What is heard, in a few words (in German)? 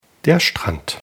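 "strand", German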